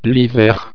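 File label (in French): "hiver", French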